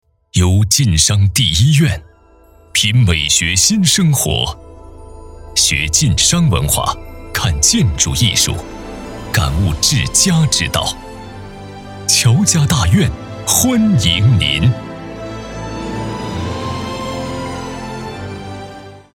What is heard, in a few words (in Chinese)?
男 广告类 文旅宣传; 乔家大院欢迎您